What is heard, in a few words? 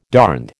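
"darned", American English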